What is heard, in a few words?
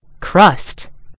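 "crust", British English; gcompris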